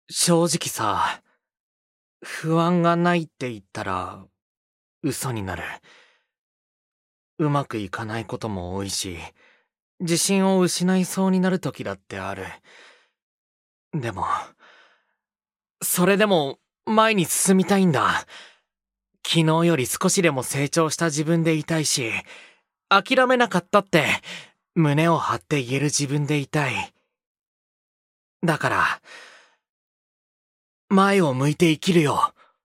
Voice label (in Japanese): 臆病